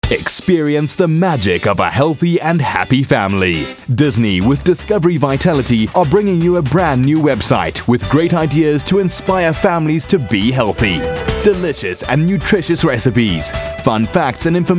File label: VO Showcase; Disney